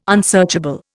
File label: "unsearchable", Indian